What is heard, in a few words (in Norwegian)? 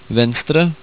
"venstre" Norwegian